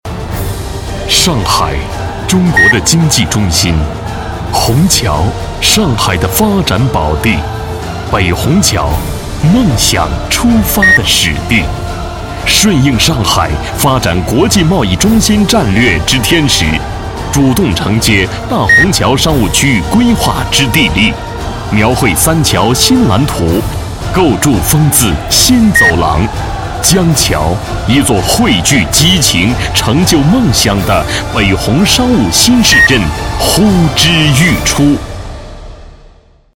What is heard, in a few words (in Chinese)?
样音大气企业宣传; 江桥